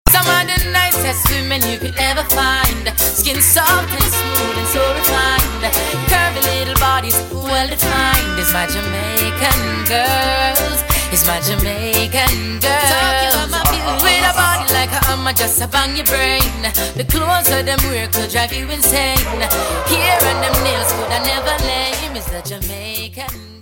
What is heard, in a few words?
Jamaican Girl